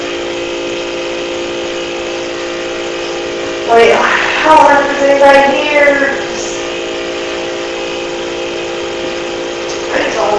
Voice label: Basement Unexplained Whisper while is speaking Amplified